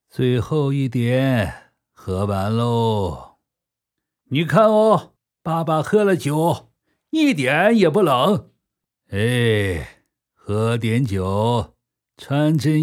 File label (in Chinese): 男 专题 人物样音 中年